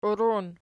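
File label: "uirun" nominative, Archi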